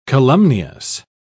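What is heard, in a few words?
"calumnious", British English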